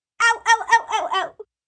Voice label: Pain Owowow, Ow, Ouch, 1 child, multiple